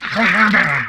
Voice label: humanoid male; Stellaris; humanoids